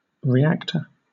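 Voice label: "reactor", British English; pronunciations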